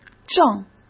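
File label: "正", Mandarin Chinese; hsk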